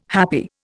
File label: "happy", Indian